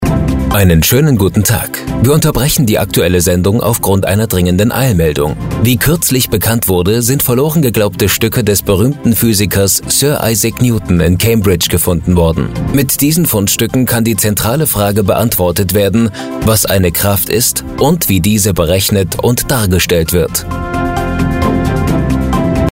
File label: News Style; LOFT VOICES